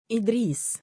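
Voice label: "2idriis" male, Arabic; إِدْرِيس; ’idrīs; イドリース; Idris、Idriis、Idrees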